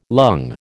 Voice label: "lung", American

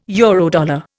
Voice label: "Eurodollar", Indian

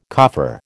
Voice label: "coffer", American

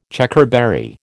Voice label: "Checkerberry", American

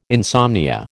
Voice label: "insomnia", American

